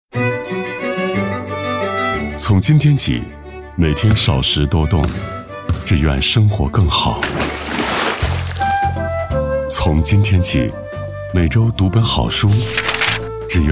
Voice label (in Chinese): 电台频宣 低碳公益 讲述时尚